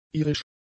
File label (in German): "irisch", german; adjectives